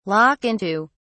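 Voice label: "lock into", English, British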